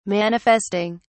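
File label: "manifesting", British English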